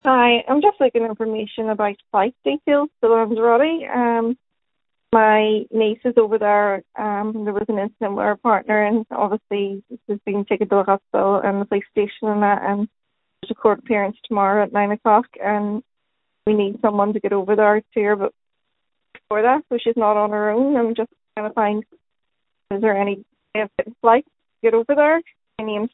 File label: call recording